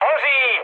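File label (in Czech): Czech on fire, mood medium; speech; War Thunder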